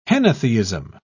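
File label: "henotheism", British English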